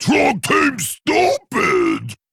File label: Male Trogg Play